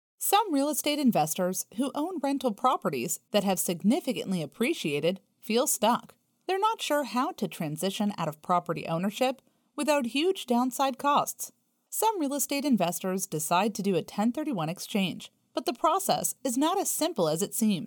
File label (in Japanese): アメリカ人女性 サンプル